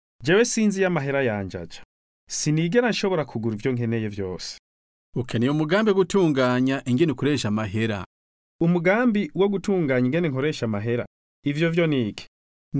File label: household budgets kirundi